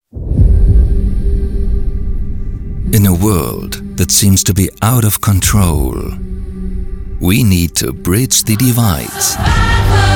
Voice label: EN Trailer; LOFT VOICES